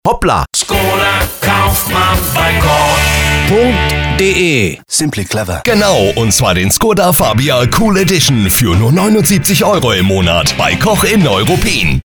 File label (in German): Funkspot; SKODA Cool Edition Neuruppin